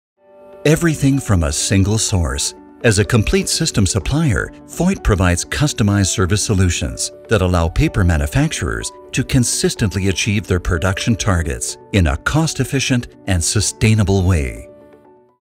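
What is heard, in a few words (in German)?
US English, Werbung, Neutral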